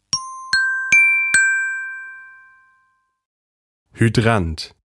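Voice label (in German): "Hydrant", German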